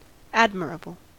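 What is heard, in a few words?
"admirable", US English; pronunciations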